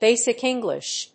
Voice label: "Basic English", English